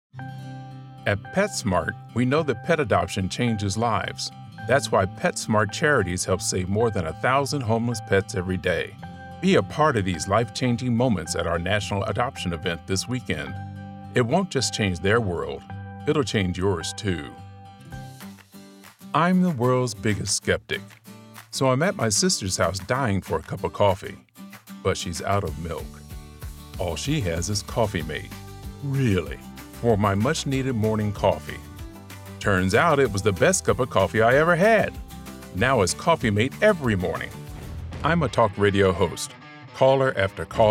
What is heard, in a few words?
COMMERCIAL VOICE OVER